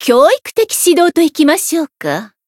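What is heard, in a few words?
Voice encount Japanese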